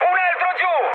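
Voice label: Italian player killed enemy mood high, speech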